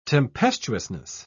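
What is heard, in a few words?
"tempestuousness", British English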